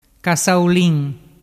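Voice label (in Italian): "cassaulin", dialect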